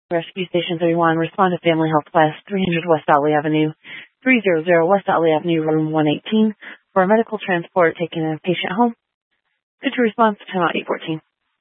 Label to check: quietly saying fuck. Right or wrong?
Wrong